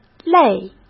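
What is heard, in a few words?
"类", Mandarin Chinese; hsk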